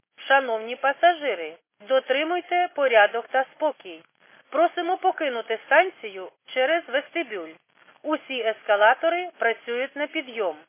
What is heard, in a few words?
khs st female, subway station